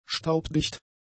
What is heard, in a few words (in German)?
"staubdicht", German; adjectives